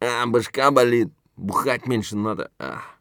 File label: scav mutter, voice; Escape from Tarkov; scav5